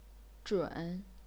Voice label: "zhun3", chinese pinyin